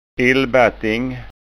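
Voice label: "illbatting", Scanian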